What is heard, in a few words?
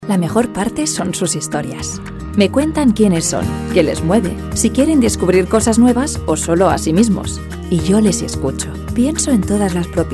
female spanish tea comercial; ideasonora